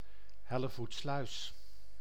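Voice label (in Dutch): "Hellevoetsluis", Dutch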